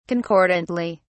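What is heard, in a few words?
"concordantly", British English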